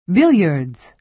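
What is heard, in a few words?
"billiards", American English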